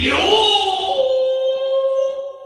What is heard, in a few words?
japanese "yoooo"